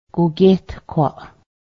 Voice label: garbage container, Cayuga

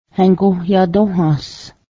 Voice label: i will write to you, Cayuga

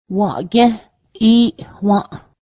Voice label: here let me this time, Cayuga

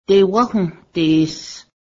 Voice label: deer, Cayuga

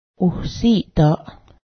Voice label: feet, Cayuga

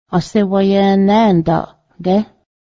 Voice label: did you finish, Cayuga

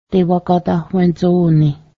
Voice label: i want, Cayuga

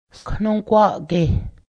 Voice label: breast, Cayuga

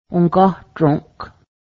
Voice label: i got scared, Cayuga

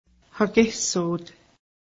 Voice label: grandfather my, Cayuga

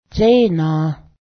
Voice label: grasp, Cayuga

